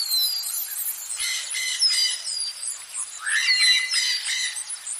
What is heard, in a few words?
alien language; Sci-Fi